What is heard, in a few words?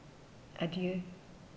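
"adieu", German, female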